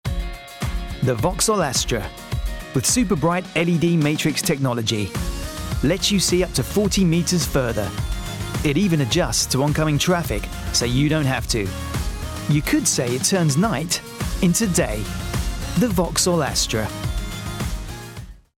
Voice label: male English radio commercial; vauxhall; Devine Voices

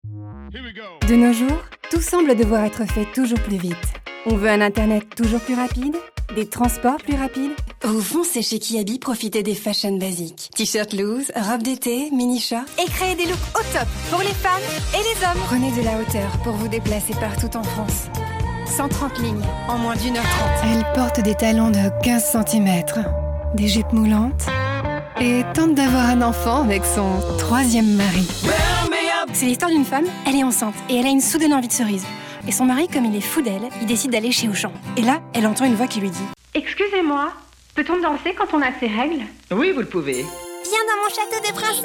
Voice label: female French commercial compilation

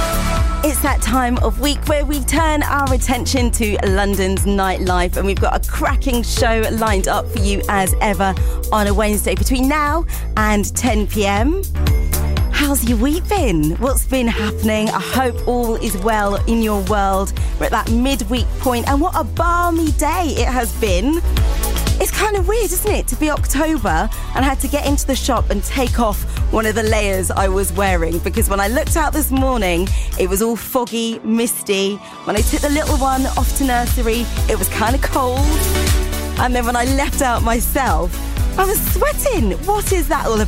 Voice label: female english radio intro; Devine Voices

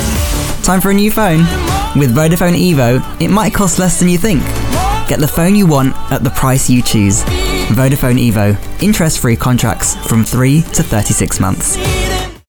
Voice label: male english commercial; Vodafone

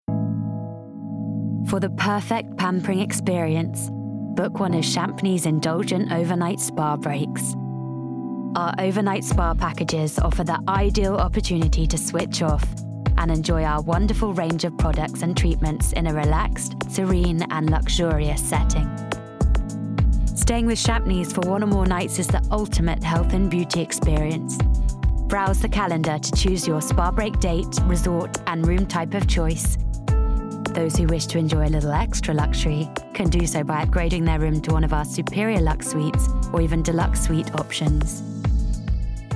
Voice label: female english commercial; champneys; southern and northern england

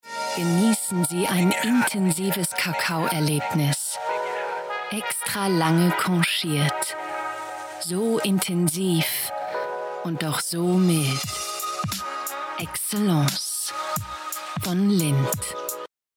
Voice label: female austrian and german commercial; lindt

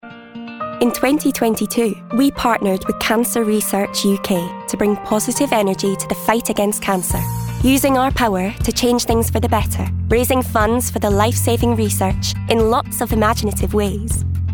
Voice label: female English Scottish appeal; macmillan

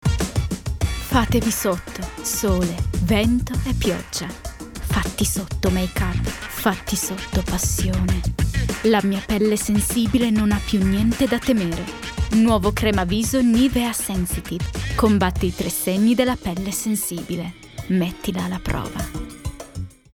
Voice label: female, Italian commercial

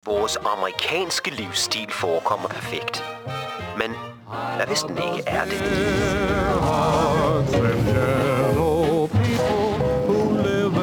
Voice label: male danish; xbox; fallout 3

